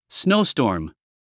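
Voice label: "snowstorm", English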